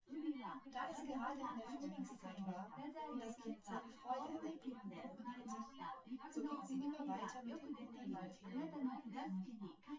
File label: female; SiSEC08; SiSEC underdetermined